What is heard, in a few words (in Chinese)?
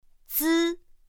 "zī", pinyin; pinyin2; pinyinji